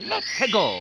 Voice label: lets go, announcer; superbroswar; Mario